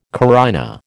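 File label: "carina", American English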